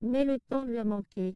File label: gender Trans M F LPC